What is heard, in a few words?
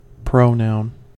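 "pronoun", US English; pronunciations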